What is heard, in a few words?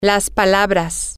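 the words, Spanish, female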